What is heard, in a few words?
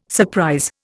"surprise", Indian